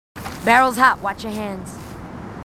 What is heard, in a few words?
marines female